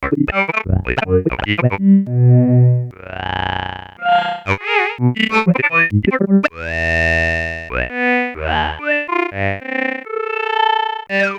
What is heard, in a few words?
garble utterances